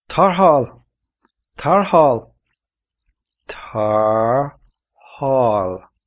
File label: help irish